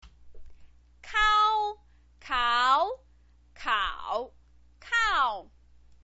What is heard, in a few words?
"kao", Chinese pinyin voice